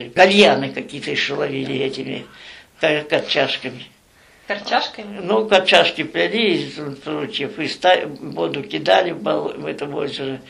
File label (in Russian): среднеобский говор; корчажка